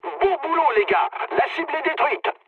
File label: bomb success mood med, speech fr; War Thunder